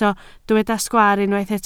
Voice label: "sgwaar", Welsh